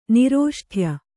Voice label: "ನಿರೋಷ್ಠ್ಯ", Kannada; nirōṣṭhya; noun; (phon.) absence of labials.

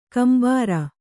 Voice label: "ಕೆಂಬೂರ", kannada

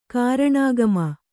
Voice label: "ಕಾರಣಾಗಮ", Kannada; kāraṇāgama

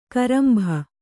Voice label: "ಕೊರಂಬೆ", kannada

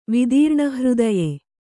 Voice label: "ವಿದೀರ್ಣಹೃದಯ", Kannada

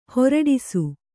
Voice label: "ಹುರುಡಿಸು", Kannada

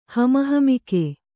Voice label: "ಹಮಹಮಿಕೆ", Kannada; hamahamike; noun; arrogance; overbearingness

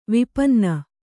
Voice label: "ವಿಪನ್ನ", Kannada; vipanna

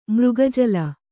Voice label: "ಮೃಗಜಲ", Kannada

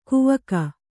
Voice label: "ಕವಿಕೆ", Kannada

